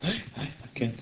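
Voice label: ingressive aye, egressive aye i ken, male; JIPA 2008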